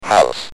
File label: "House", Speak & Spell UK